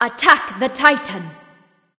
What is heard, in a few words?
vox attack m; smite; athena